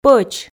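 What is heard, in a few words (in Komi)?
"пӧч", Komi, female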